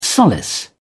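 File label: "solace", English, British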